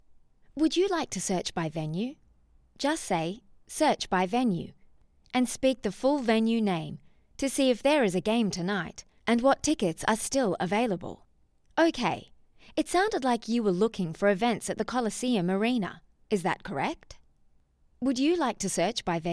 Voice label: Voice Assistant